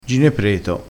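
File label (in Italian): "ginepreto", vocabolario dialettale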